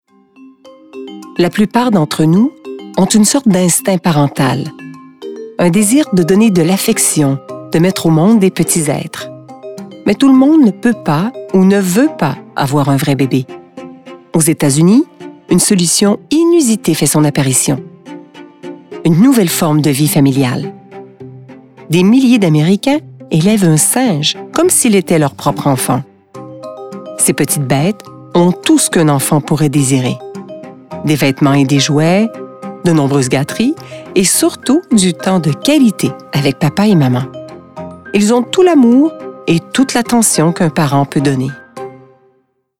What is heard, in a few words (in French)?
Narration